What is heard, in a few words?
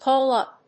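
"call-up", English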